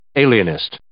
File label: "alienist", English